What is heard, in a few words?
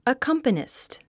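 "accompanist", English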